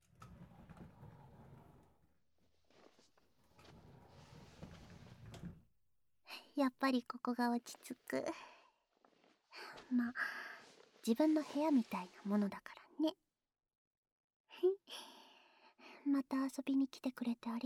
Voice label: カウントダウン, ASMR

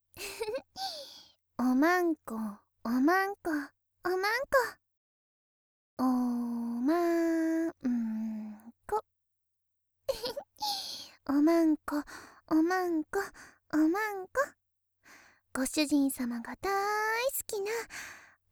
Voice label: おしっこオナサポ

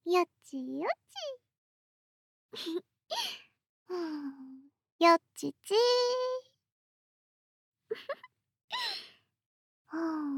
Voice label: よしよしループ仕様, ASMR

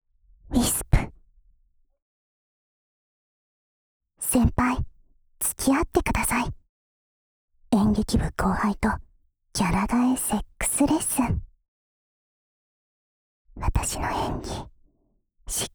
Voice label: タイトルコール

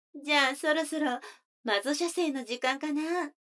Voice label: 女の子 台詞

